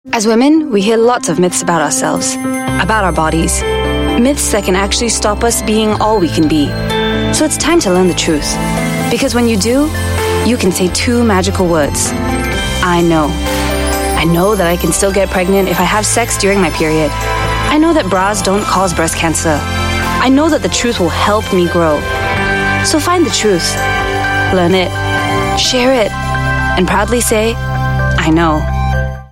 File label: Mid-Atlantic English